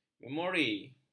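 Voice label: "memori", Malay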